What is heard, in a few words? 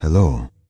Night Elf Male Standard Greeting